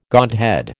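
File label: "godhead", American English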